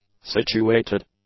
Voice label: "situated", English; adjectives

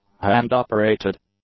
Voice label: "hand-operated", English; adjectives

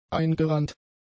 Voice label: "eingerannt", German; adjectives

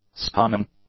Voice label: "stunning", English; adjectives

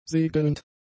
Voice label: "segelnd", German; adjectives

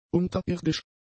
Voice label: "unterirdisch", German; adjectives

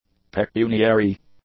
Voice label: "pecuniary", English; adjectives

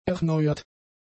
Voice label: "erneuert", German; adjectives

